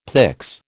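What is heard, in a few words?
"pyx", American English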